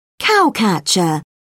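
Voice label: "cowcatcher", British English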